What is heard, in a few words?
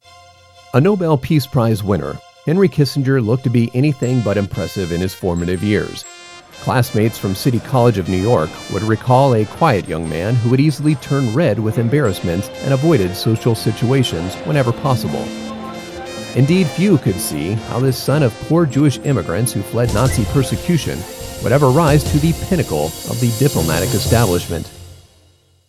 English American documentaries